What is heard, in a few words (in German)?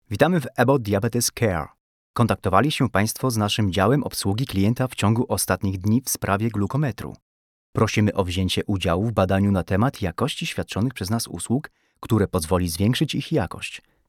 polnisch telefon; sprecher